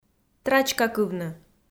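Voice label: "трачкакывны", Komi, female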